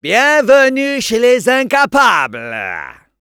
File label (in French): Medic jeers